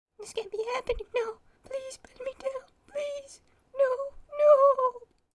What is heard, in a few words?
Voices Female Beggings